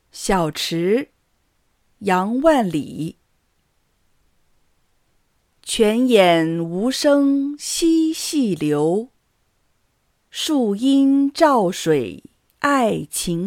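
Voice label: Speech Contest normal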